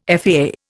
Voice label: "FAA", Indian